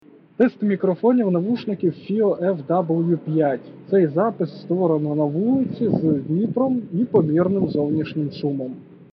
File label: FiiO FW5 mic test outdoor